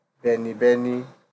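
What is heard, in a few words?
"benibeni", Nigerian Pidgin, male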